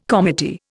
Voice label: "comity", Indian